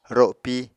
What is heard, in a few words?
"ropi", Apatani